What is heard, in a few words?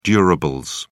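"durables", British English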